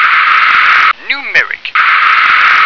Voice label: "numeric", English